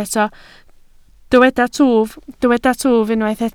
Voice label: "twf", Welsh

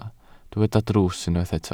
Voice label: "drws", Welsh

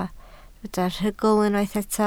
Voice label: "rhugl", Welsh

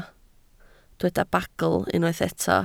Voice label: "bagl", Welsh

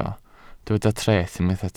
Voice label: "treth", Welsh

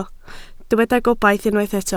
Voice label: "gobaith", welsh

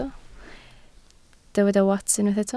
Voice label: "wats", Welsh

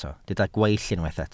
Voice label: "gweill", Welsh

